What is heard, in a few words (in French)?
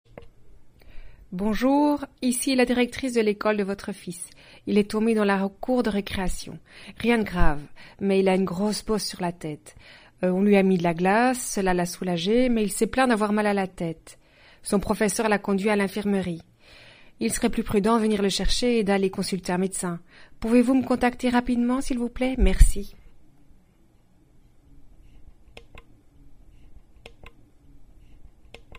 une directrice d ecole telephone a un parent